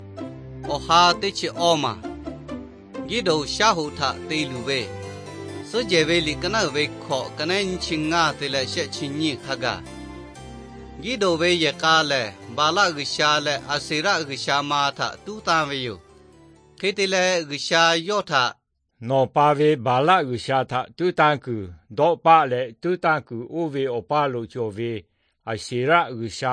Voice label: victory through god lahu na, nt drama; bible; lahu thailand bible society